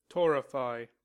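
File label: "torrefy", US English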